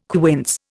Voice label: "quince", Indian